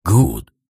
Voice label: shoutout en; jewels